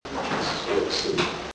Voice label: soup slowed down